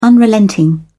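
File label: "unrelenting", British English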